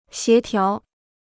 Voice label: "协调", Chinese